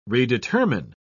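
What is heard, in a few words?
"redetermine", English, British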